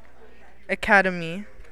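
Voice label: "academy", American, female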